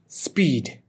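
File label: "speed", Nepali; force and motion new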